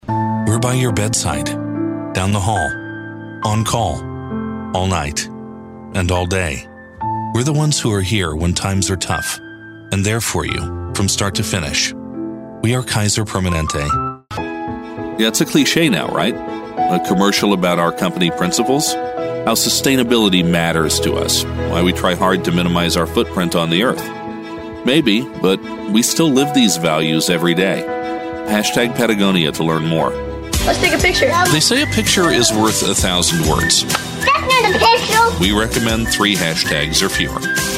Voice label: american accent, english male voices american accent